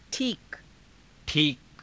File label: "thiika", Hindi